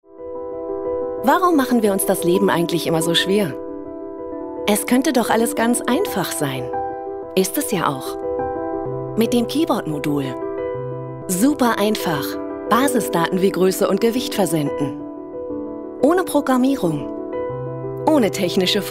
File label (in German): Erklaerfilm; Seca